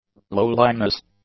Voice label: "lowliness", English